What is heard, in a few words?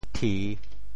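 "thi3", Teochew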